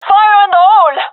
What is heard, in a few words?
throwing grenade, gendarmerie fem; vo; agents